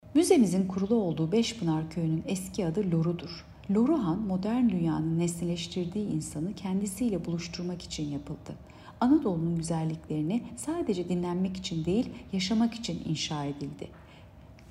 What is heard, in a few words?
turkce